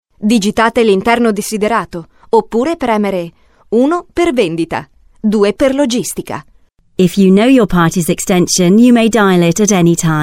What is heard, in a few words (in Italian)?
multivoce madrelingua